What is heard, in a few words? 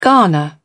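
"garner", British English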